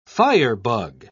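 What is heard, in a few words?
"firebug", British English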